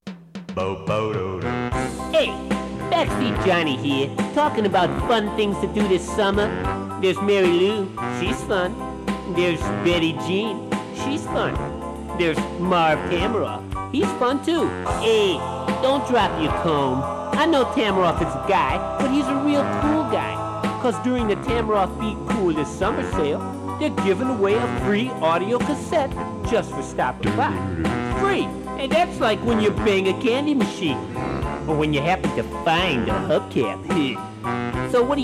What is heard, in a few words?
Radio Commercials; Tamaroff Buick